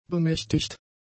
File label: "bemächtigt", German; adjectives